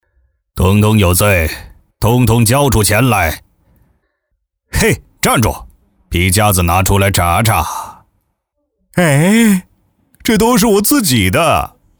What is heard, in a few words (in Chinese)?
男 角色 大富翁和法官